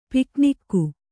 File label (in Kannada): "ಪಿಕ್ನಿಕ್ಕು", Kannada